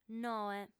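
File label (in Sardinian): "noe", female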